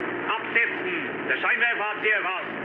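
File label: German searchlight mood med, speech; War Thunder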